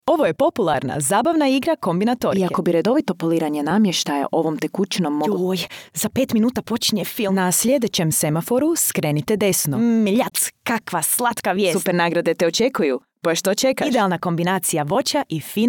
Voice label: showreel, croatian